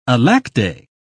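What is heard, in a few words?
"alackaday", British English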